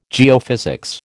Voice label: "geophysics", American

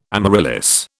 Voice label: "amaryllis", British

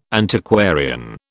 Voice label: "Antiquarian", British

